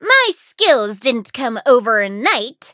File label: menu idle eng; cream soda